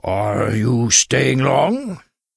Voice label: Tuskarr Male Pissed; Warrior